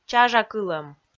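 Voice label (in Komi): "чажакылӧм", Komi, female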